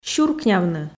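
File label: "сюркнявны" Komi, female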